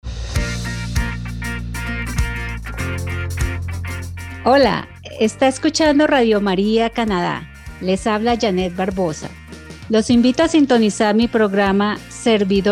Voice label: promo vo